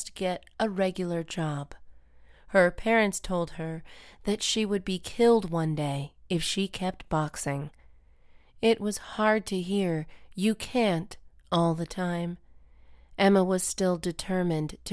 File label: A Female Boxer US